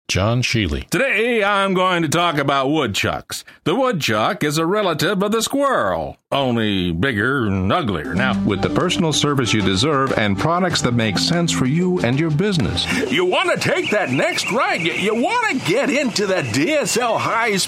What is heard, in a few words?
Commercial, Male voices